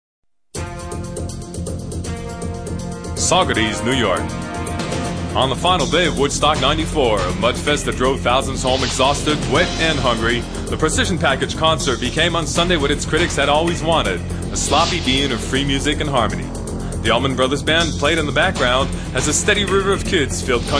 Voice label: news Voice Over